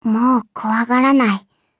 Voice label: levelup ja; cookie run